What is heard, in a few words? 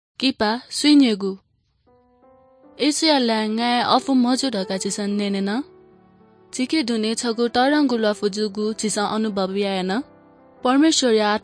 good news newari bhaktapur